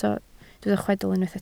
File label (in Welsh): "chwedl", Welsh